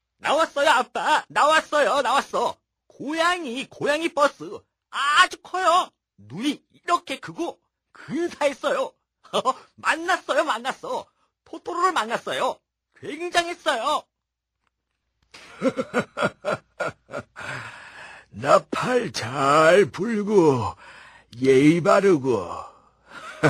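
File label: Korean male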